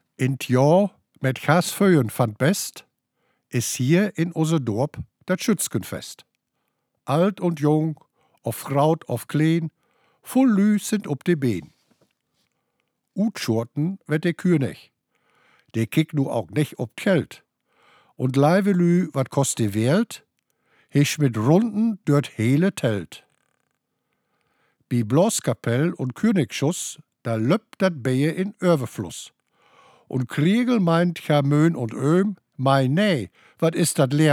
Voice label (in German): Platt; Vogelstange; Plattdeutscher Weg; Nottuln